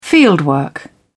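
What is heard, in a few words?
"fieldwork", English, British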